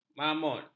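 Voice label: "mamot", Malay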